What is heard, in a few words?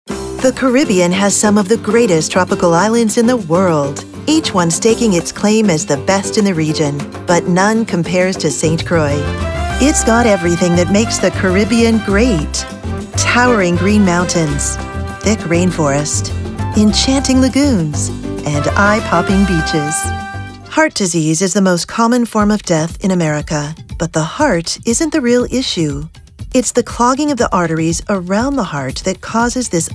Narration